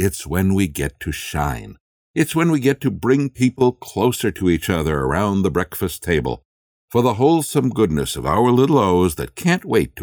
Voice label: Ad for Cheerios